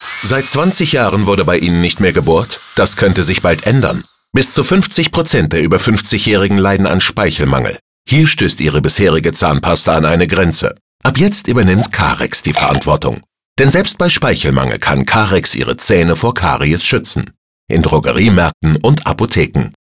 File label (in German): Werbung; Karex